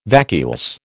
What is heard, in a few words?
"vacuous", American